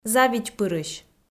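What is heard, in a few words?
"завидьпырысь", Komi, female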